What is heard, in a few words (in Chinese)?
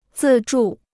"自助", Chinese